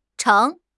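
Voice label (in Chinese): "橙", Mandarin Chinese; HSK6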